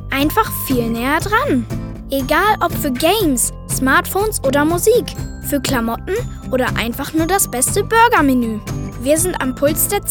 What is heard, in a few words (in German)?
Teenager Stimme